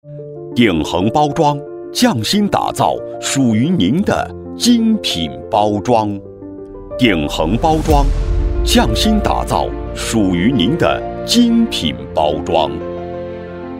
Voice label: 男 广告 包装; 鼎恒包装

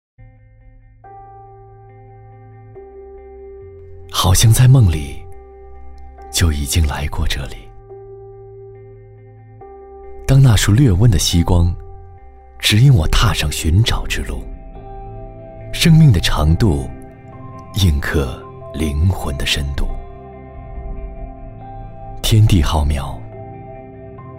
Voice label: 男 中文 温暖品质 旁白

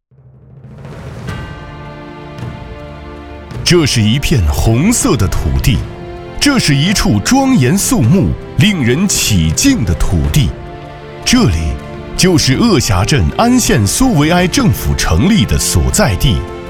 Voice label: 男 大气 红色 专题

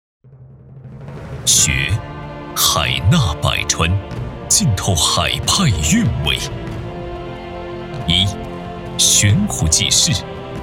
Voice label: 男 汇报类; 复旦大学上海医学院95周年

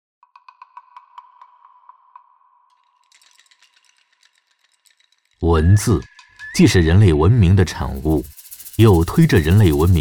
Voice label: 男 历史纪录片 文字起源

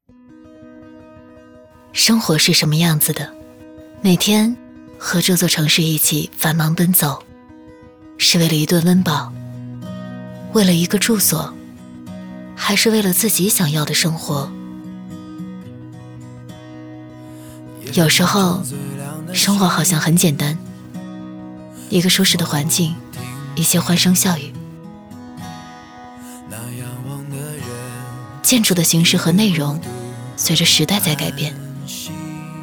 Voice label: 女 独白 温暖自然素人; 喜欢生活喜欢这座城

